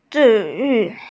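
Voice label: "zhi4yu4", Mandarin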